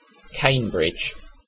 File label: En uk Cambridge